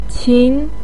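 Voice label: "qin", Mandarin pinyin, second tone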